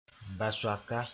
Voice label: "basoaka", Mooré; fish sp